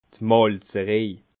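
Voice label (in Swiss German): "malzerej", Alsatian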